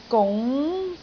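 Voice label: "gung2", Cantonese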